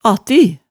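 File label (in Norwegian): "atti", Hemsedal dialect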